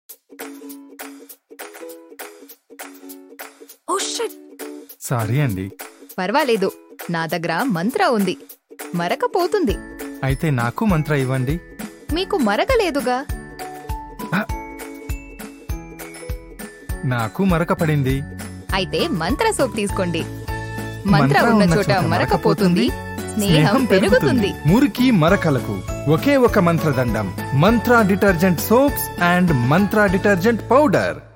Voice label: Telugu